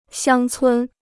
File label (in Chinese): "乡村", Chinese